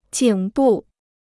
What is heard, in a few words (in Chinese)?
"颈部", Chinese